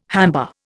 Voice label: "hamba", Indian

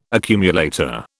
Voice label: "accumulator", British

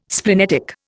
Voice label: "splenetic", Indian

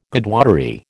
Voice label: "Godwottery", American

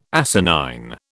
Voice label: "asinine", British

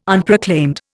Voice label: "Unproclaimed", Indian

